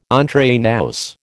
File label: "entre nous", American